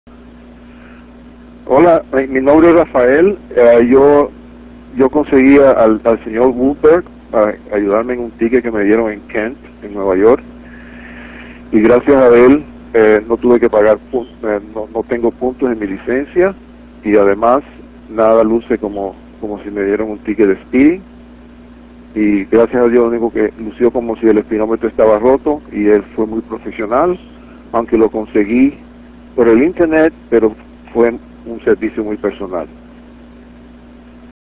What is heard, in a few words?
spanish